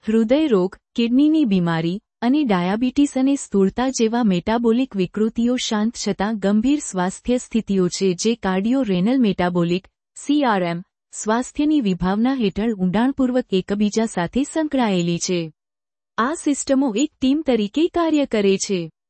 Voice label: video Female Gujarati